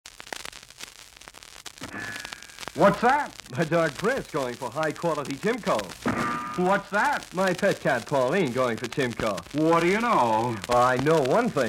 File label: Dog Food Commercial; Timco